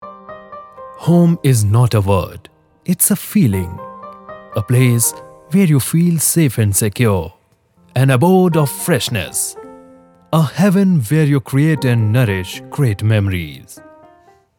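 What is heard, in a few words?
TVC english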